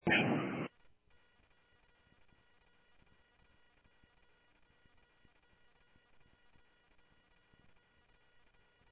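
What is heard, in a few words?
Sheriff Lake Patrol, scanner audio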